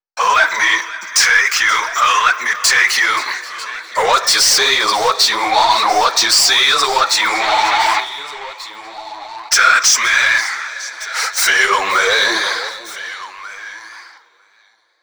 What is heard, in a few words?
female, a127, voice; FX; Mutekki Media; Dance Ultra Voice VOL-1